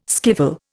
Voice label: "skiffle", Indian